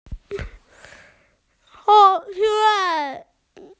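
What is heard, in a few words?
"gong yuan" (park), Chinese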